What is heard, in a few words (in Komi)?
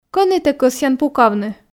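Komi, кӧні тэ кӧсъян пукавны, female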